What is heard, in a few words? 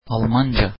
"German", Turkish